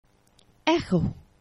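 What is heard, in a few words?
"eĥo", Esperanto; geneva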